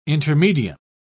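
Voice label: "intermedium", British English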